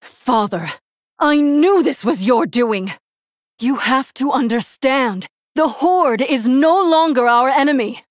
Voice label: Female Human Victory; Jaina; Rexxar Mission5Victory